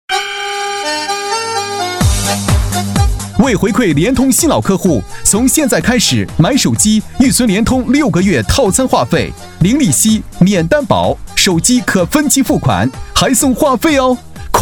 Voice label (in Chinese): 联通通信 男 激情浑厚; 促销